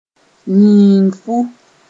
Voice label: "yĩinfu", Mooré

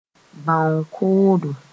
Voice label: "bão-koodo", Mooré

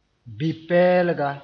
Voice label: "bi-pɛɛlga", moore

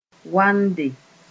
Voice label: "wande", Mooré

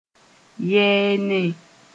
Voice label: "yɛɛnẽ", Mooré

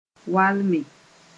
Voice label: "walme", Mooré

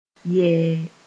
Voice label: "yɛɛ", moore

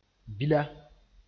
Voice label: "bila", moore

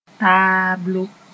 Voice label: "taablo", moore; blackboard

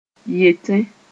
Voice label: "yetẽ", Mooré; saying (that)

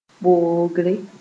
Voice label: "boogre", Mooré

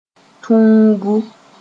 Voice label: "tũubu", Mooré

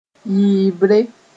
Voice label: "yɩɩbre", Mooré

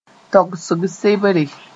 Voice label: "togsg sebre", Mooré

